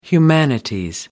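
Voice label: "humanities", English, uk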